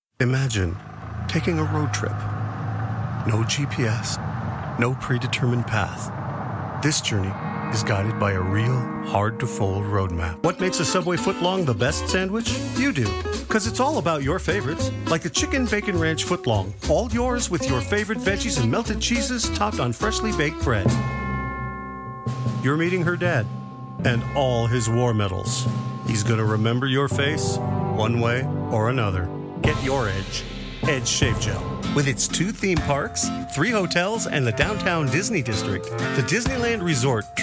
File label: USA